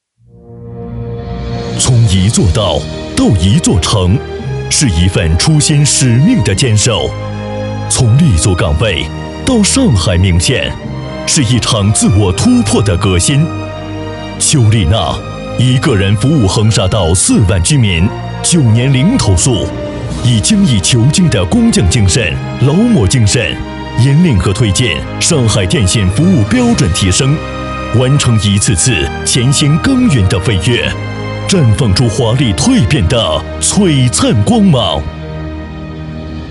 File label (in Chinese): 男 人物专题